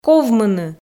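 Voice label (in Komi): "ковмыны", Komi, female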